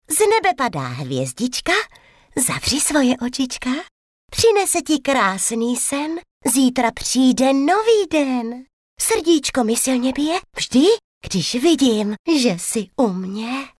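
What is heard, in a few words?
female Czech drama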